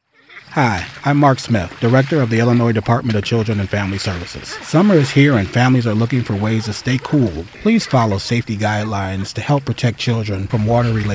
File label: PSA; drown; DCFS